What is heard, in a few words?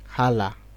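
"hala", Nigerian Pidgin, male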